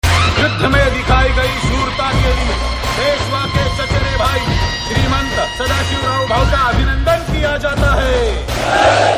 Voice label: Dialogue Ringtone; Panipat; Sreemanth Sadha Shiv Rao